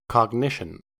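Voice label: "cognition", US English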